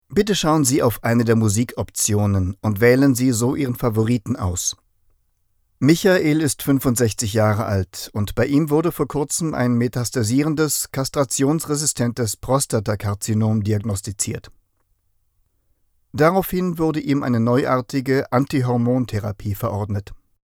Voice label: german medical